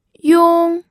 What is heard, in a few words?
"yong1", pinyin